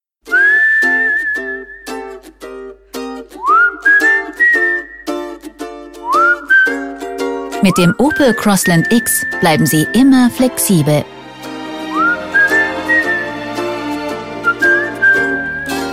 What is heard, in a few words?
F, DE DE, comm